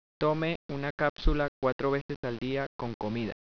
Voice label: Spanish, Take one capsule four times a day with food; Tome una capsula cuatro veces al dia con comida.